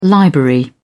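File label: "library", British English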